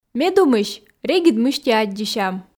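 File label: Komi sentences, female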